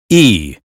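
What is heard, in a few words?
American phonetics sound sheep; 英语音标发音（剑桥版）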